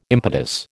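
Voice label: "impetus", American English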